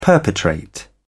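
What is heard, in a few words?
"perpetrate", British English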